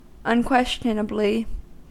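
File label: "unquestionably", American English; pronunciations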